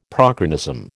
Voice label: "prochronism", American